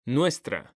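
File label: "nuestra", Spanish, male; mekanta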